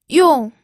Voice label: "yong4", pinyin